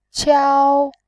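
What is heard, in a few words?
"qiao", Mandarin, tone 1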